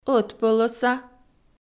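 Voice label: "ӧтпӧлӧса" Komi, female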